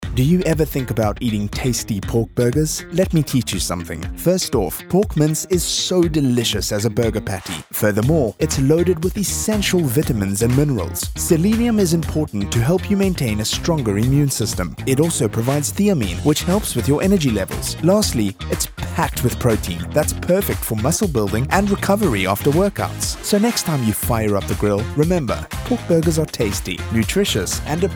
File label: English male